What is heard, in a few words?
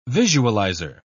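"visualizer", English, British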